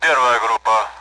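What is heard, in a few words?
Speech Sound Russian Common AWACS; first group; DCS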